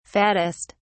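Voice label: "fattest", British English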